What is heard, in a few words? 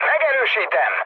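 player killed enemy, mood med, Hungarian; speech; War Thunder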